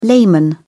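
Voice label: "layman", British English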